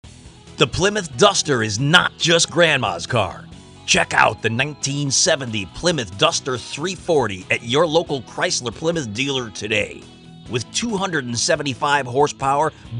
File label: automotive, broadcast